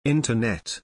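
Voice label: "internet", English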